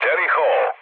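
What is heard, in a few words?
Serbian enemy detected mood low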